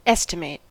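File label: "estimate", US English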